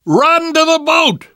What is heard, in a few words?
arrive boat, survivor voice; Left 4 Dead 2; left4dead2 dlc3